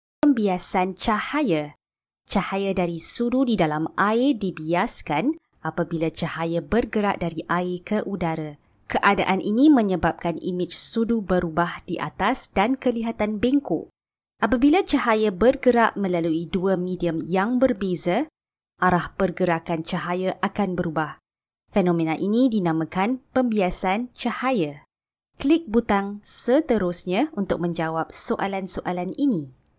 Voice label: Malay, Female